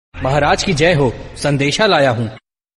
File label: MAHARAJ KI JAI HO RINGTONE Funny